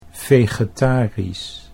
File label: "vegetarisch", Dutch